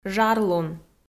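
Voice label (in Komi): "жарлун", Komi, female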